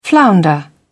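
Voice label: "flounder", British English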